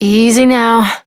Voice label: Horse Calm Adult; Duviri

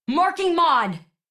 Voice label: Operator Voice D; Warframe; Operator Mission; Mod Marked; en

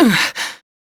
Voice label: Pain, Female; Warframe; Railjack; New Loka

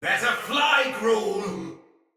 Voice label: See Player Shout, Battle; Barks; Thawtide Hunter

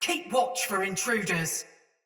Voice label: Patrol Ode Soldier Mace Agent Female; Battle; Sinecure Melee

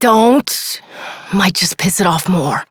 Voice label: Adult Op, en; New War; Ascend Tower

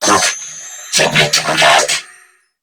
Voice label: Surprised Corpus Crewmen; New War; Narmer

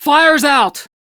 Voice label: Crew Fix Fire, Female; Dialog; en; Warframe; RailjackCrew; Steel Meridian Female

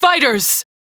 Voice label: Crew Gun Spot Fighter, Female; Dialog; en; Warframe; Railjack Crew; New Loka

